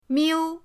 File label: "miu1", pinyin; pinyindct-open